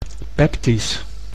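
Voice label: "peptisch", Dutch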